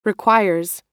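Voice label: "requires", American English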